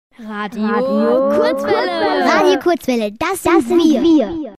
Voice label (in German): Jingle; Das Sind Wir